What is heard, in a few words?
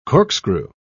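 "corkscrew", English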